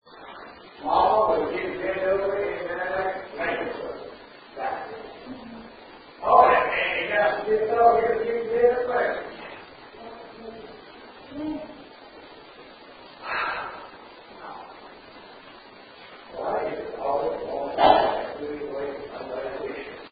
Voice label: Whisper Male voice Yeah; Ballard Building

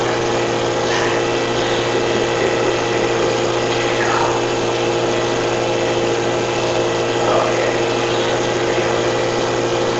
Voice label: Basement Unexplained Whisper (No) Amplified

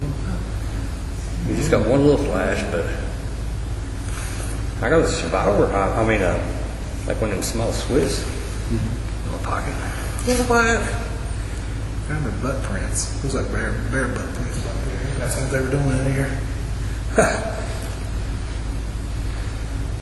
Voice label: female voice believe it; Community Methodist Church; Pullpit

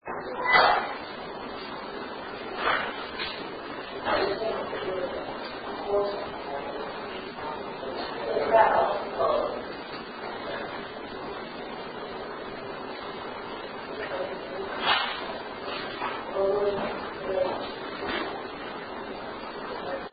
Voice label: Back off Female voice; Case 020 Ballard Building

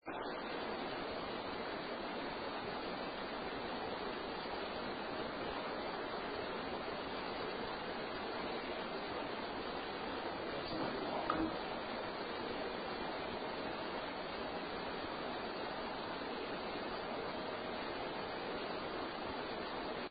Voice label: male vo; Case 012 Pocahontas Fuel Building 2nd Floor Art Room

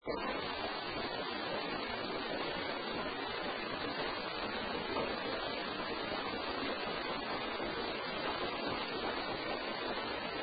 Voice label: Whisper HEY WITH A LAUGH; Case 021 Zepp Home; Master Bedroom

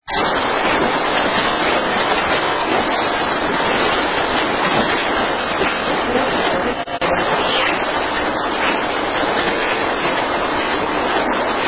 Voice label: Living Room, female whisper

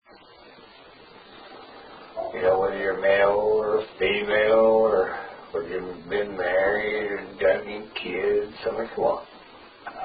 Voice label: Home Master Bedroom WHISPER Inaudible; Case 021 Zepp

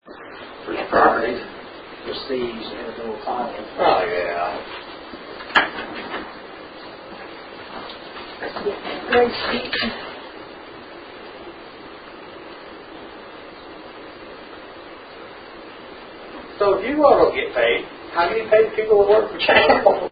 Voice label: Town Hall Main Office, female voi; Case 013 Pocahontas

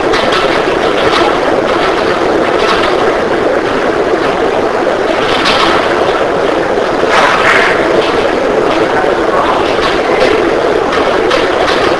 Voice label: Kids Room Unexplained inaudible whisper; Truner Home Bluefield WV; 2nd Floor

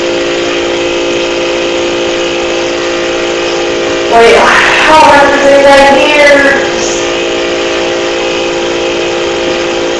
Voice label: Basement Unexplained Whisper (Hi) Amplified

